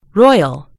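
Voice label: "royal", British English; gcompris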